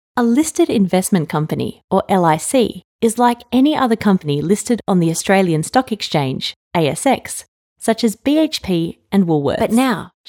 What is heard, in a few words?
Natural